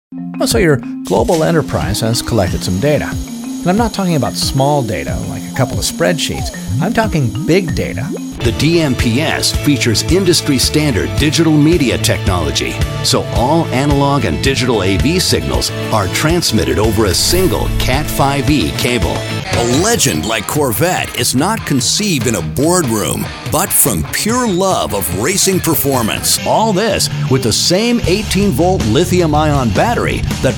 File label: NARRATION